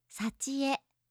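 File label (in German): "sachie", japanische Mädchennamen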